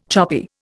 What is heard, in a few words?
"choppy", Indian